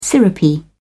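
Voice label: "syrupy", British English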